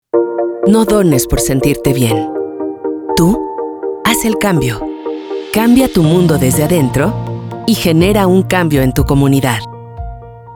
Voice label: Donation Convincing Sincere